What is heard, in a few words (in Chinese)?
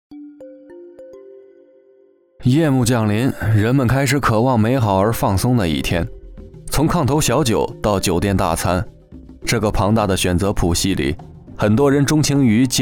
男 纪录片 美食